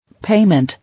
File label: "Payment", English